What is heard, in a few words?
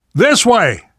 world hospital, namvet, survivor voice